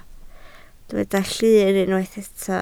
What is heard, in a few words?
"llun", Welsh